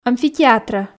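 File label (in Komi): "амфитеатрӧ", Komi, female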